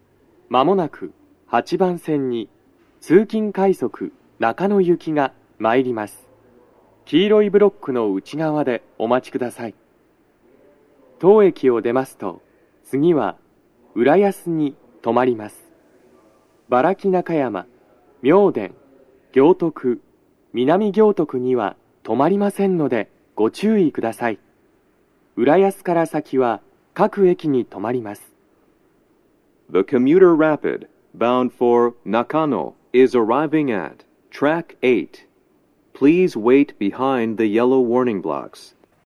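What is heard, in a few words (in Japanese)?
接近放送 男声, 通勤快速 中野行き; 収録者:こたけ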